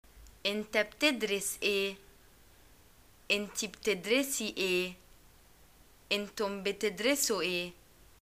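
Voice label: Egyptian what do you study, arabic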